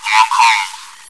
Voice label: chitchat, bot, voice commands; svencoop